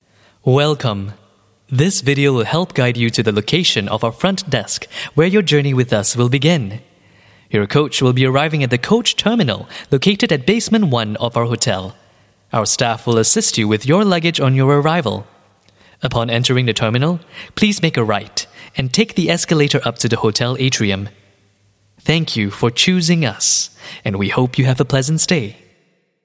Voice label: Coporate Hospitality, English Singaporean Male